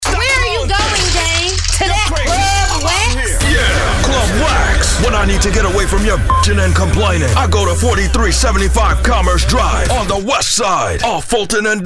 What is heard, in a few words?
Gentlemans Club Commercial Funny Version